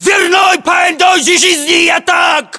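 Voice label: location first floor doorway, battlechatter, Russian; localized english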